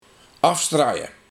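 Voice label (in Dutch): "Afstraie", dialect; Iets ontkennen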